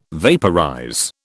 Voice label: "vaporize", British

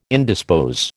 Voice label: "indispose", American

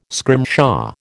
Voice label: "scrimshaw", American

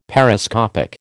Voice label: "Periscopic", American

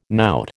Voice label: "knout", American

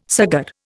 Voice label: "saggar", Indian

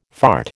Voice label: "fart", American

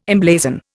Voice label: "emblazon", Indian

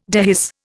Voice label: "dehisce", Indian

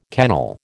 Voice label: "kennel", American

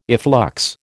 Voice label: "efflux", American

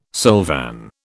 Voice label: "silvan", British

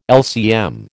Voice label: "LCM", American

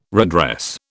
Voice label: "redress", British

